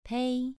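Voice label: "pei", tone 2, pronunciation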